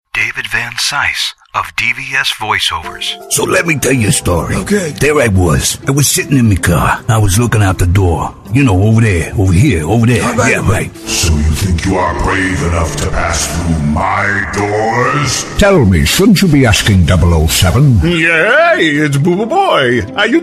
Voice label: characterizations and impersonations